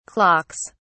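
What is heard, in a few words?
"clocks", British English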